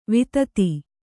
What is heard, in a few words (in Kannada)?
"ವಿದಿತೆ", kannada